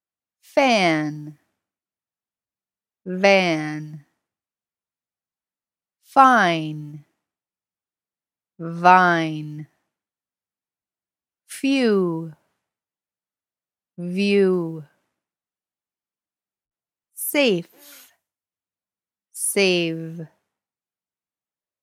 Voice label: Pronunciation Practice